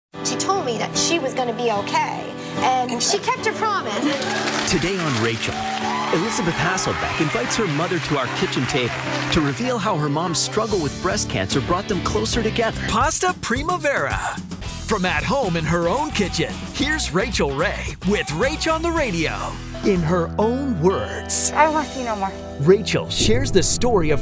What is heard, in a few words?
promo men daytime